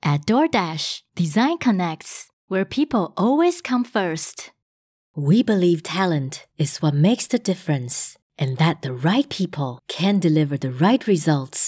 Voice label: Accent Range